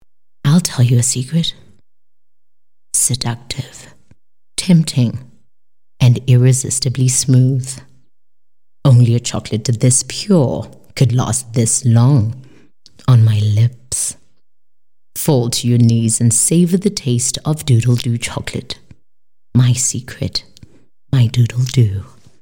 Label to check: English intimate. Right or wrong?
Right